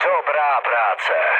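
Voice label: player killed enemy, Czech, mood low; War Thunder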